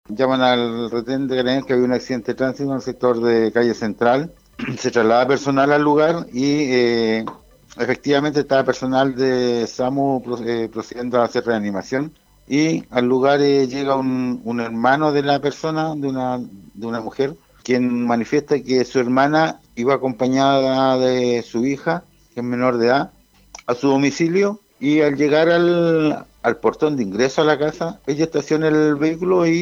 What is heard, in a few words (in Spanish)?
cuña; SUBOFICIAL GAVILAN MUJER MUERE ATROPELLADA PR SU VEHICULO